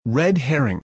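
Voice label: "red herring", English word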